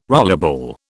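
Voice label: "rollerball", British English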